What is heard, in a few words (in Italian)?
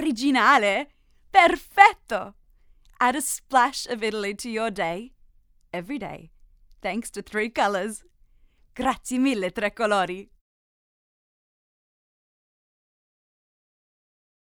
Italian VO